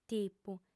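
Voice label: "tipu", Sardinian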